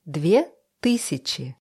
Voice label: russische zahl 20; Zahlen auf Russisch

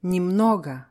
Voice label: "nemnogo", russische

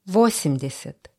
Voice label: russische zahl 20; Zahlen auf Russisch

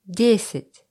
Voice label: russische zahl 20; Zahlen auf Russisch

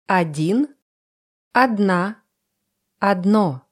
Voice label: russische zahl 20; russische zahl 1; Zahlen auf Russisch

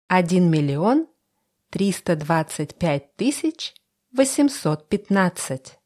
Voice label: russische zahl 20; Zahlen auf Russisch

